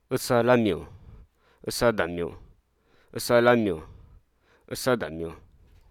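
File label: Hruso Aka; collect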